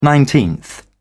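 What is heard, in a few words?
"nineteenth", British English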